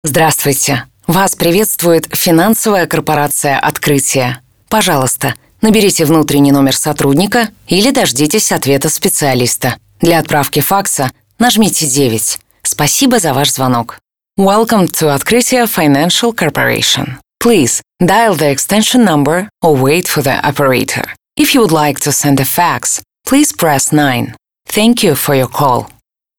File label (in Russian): IVR; Открытие